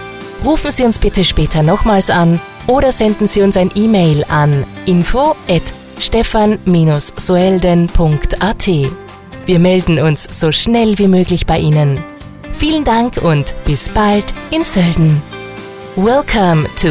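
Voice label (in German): Hotel Nachtansage, Telefonanlagen; Hotel Stefan Soelden; telefonansagen hotels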